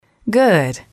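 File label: "good", English, female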